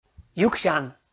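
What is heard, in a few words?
"юксян", Komi, male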